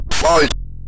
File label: "fight", Synth Voice; Kenney's Sound Pack; Synth Voice 1